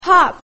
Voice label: hop, english basic sounds

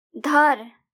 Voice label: girl

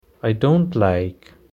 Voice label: dislike, girl

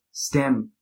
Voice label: "stem", en

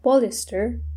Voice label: "polyster", en

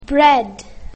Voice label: bread, english basic sounds; grade1

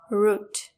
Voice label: "root", en; grade5

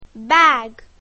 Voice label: "bag", english; grade1; basic sounds 1

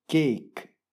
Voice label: "cake", english

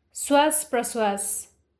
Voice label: respiration; grade5

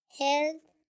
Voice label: "health", en

